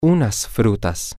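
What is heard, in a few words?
some fruit, Spanish, male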